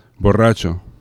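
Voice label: "borracho", Spanish; laboratori fonetica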